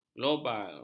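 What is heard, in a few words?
"global", Malay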